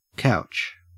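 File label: "couch", Australian English; pronunciations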